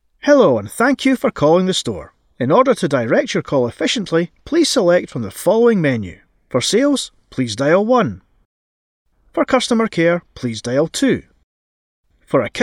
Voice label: IVR showreel